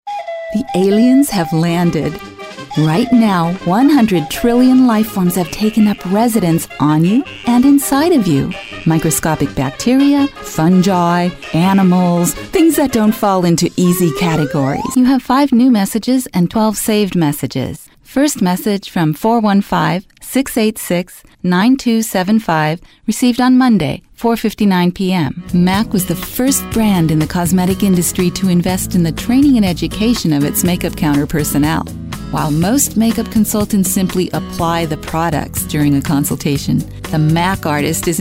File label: Narration